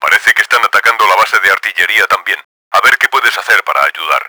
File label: under attack, Spanish; Battle Engine Aquila; XBOX